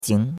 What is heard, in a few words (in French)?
"jing2", chinois; Cours de Chinois